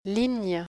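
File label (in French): "ligne", French; ɲ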